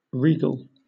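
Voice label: "regal", British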